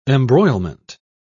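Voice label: "embroilment", British English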